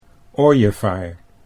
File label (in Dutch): "ooievaar", Dutch